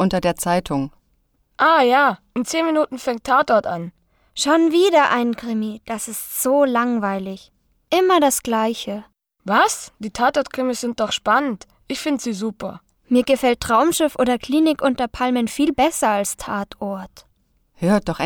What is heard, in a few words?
dialog